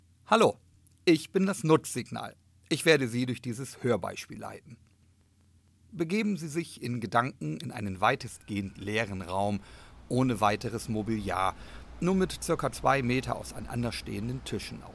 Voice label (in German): Auralisationsbeispiel Sprache